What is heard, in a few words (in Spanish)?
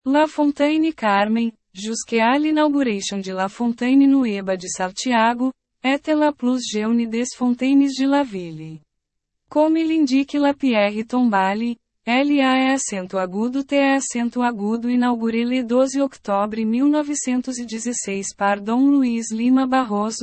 PORTUGUES FUENTE DEL CARMEN